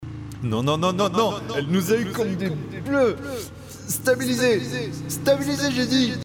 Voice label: Reverb Delay Ambiance